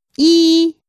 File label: Chinese Number 2